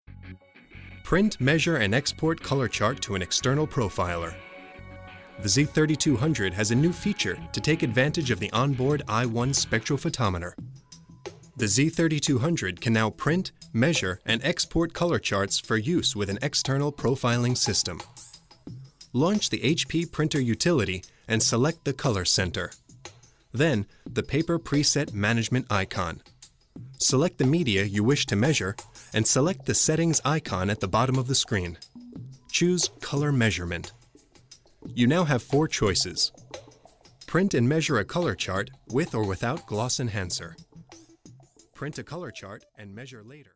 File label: male american english motor comercial; ideasonora